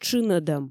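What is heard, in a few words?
"тшынӧдӧм", Komi, female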